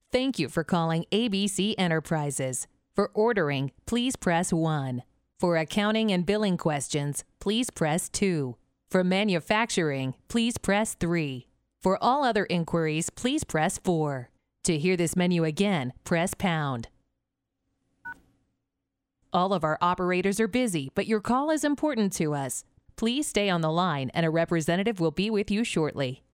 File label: Phone Menu On Hold